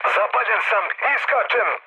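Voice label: Serbian on fire mood low, speech; War Thunder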